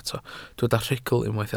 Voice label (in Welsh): "rhugl", Welsh